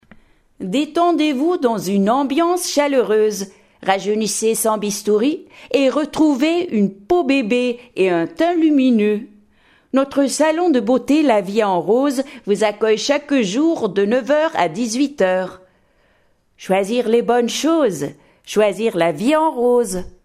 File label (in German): Werbung Französisch